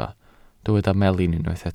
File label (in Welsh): "melin", Welsh